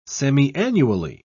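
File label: "semiannually", English, British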